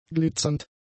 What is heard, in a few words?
"glitzernd", German; adjectives